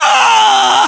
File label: death, voiceovers english